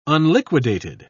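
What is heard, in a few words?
"unliquidated", English, British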